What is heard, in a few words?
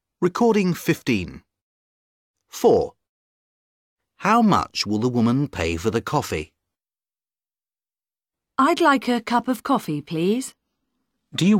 dialog